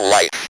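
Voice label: ROBVOX, robot voice; X-Static Goldmine 1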